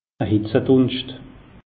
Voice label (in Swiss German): "hitze dunscht", alsace; meteo